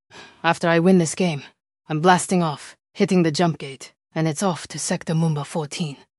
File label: happy; fertig classic games